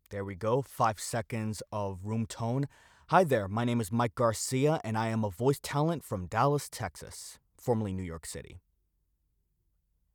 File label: Raw Studio Sample